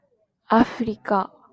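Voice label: "Africa", Japanese, female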